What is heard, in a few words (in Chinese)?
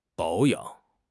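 "保养", Chinese; HSK6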